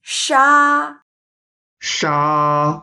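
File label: "sha1", Chinese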